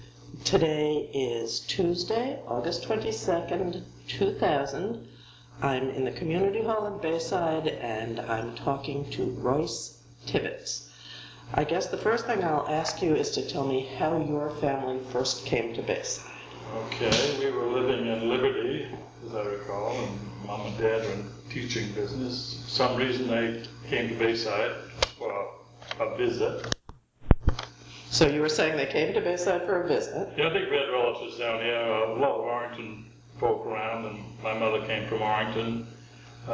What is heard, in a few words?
Noise Removed, Oral History